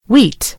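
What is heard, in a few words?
"wheat", American English; gcompris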